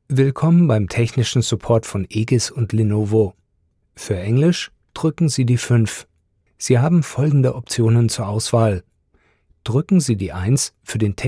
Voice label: german ivr

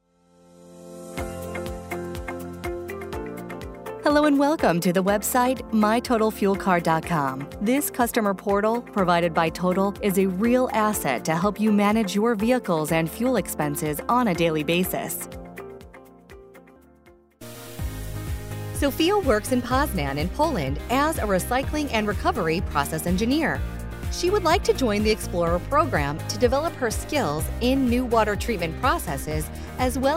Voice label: english american corporate videos

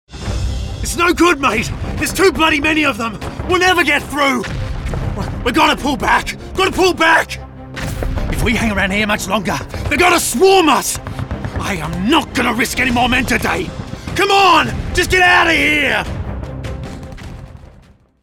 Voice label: english australian video games

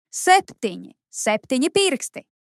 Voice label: hebrew commercial

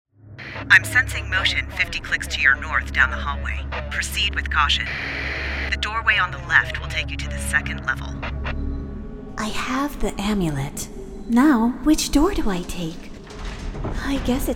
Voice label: english american video games

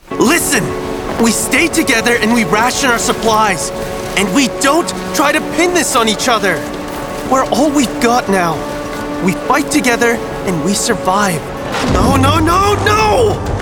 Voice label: english canadian animation; dublador profissional de inglês

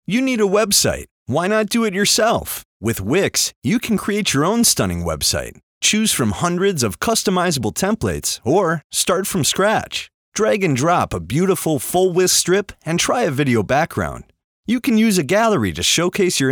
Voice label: english american narration

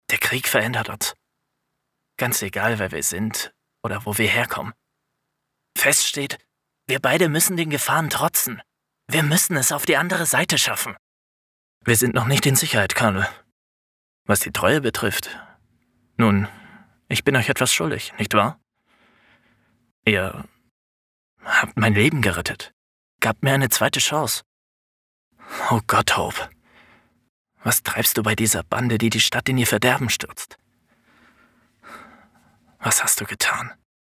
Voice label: german video games

